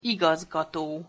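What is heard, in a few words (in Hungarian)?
"igazgato" Hungarian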